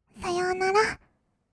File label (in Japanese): "さようなら", kawaii voice; 挨拶